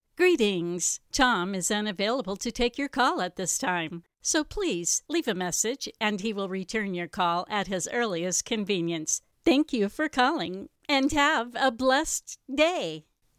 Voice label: phone greeting